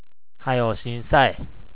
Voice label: "sinsai", HIROSHIMA ben; しんさい やりなさい やわらかな命令形。下の”きんさい”も同様